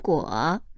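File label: "guo", Chinese, tone 3, female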